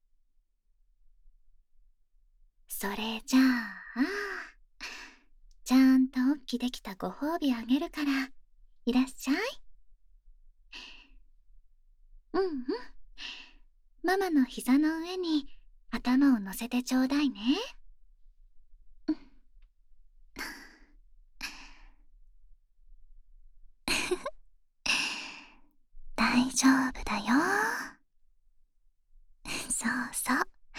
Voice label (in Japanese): とろとろふわふわ甘やかし耳かき（バイノーラルマイク 効果音なし）